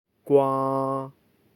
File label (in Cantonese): "gwaa", Cantonese